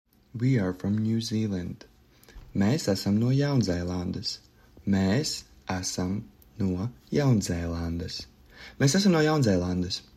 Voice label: We are from New Zealand, Latvian; the talking latvian phrasebook